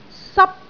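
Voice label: "sap1", Cantonese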